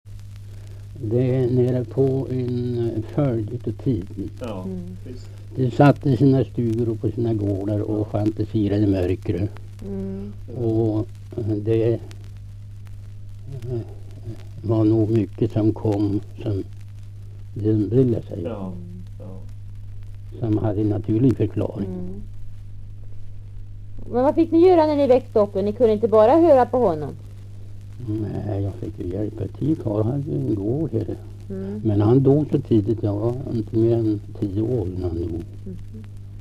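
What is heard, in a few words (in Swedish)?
Kumla Närke, dialekter; Folkminnen; Svenska sagor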